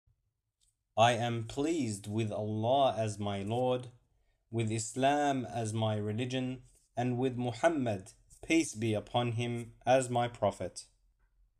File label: morning English; radeetu